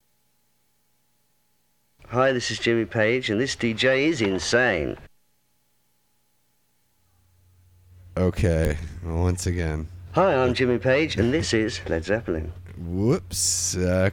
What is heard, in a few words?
radio banter; Lemonwheel